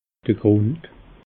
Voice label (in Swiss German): "grund"